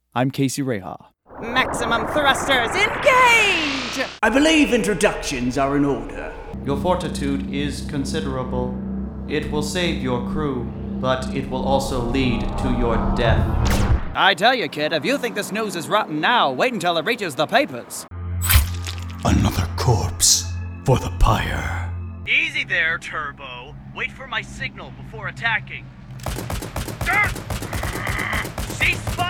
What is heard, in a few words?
vo actor singer video game